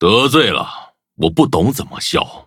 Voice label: loading zh-hant; Cookie Run